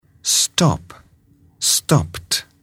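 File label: "stop", English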